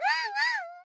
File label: shouting